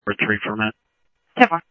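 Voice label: Mesa Police Department, scanner audio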